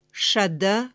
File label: "Sada" Iron Ossetian